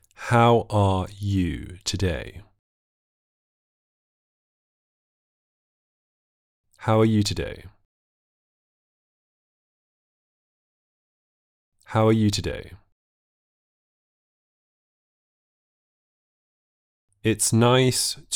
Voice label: natural rp practice, received pronunciation; received pronunciation for americans